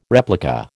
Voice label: "replica", American